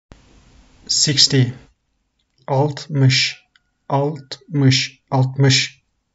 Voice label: sixty 60, Turkish; the talking turkish phrasebook

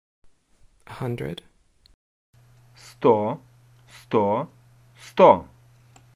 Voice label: UKR 100

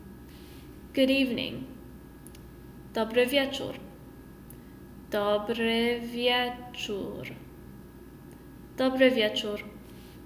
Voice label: Pol Good evening

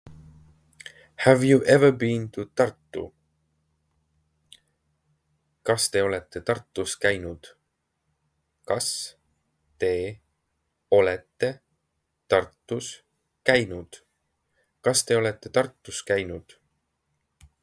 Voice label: Have you ever been to Tartu, Estonian; the talking estonian phrasebook

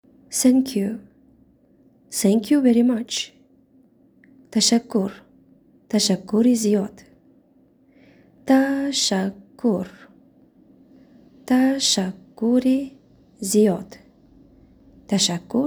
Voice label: Thank You Thank You very much Tajik

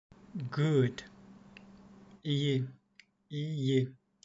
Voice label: "good", Turkish; the talking turkish phrasebook

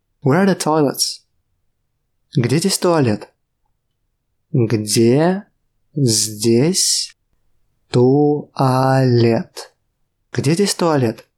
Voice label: RUS Where are the toilets

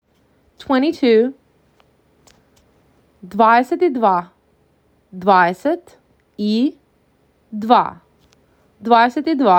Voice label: MAC 6, macedonian phrasebook

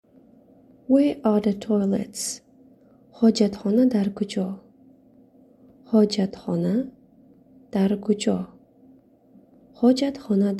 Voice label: Where are the toilets, tajik

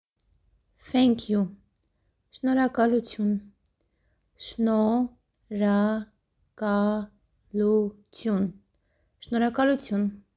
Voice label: Thank you Armenian Shnorhakalutyun

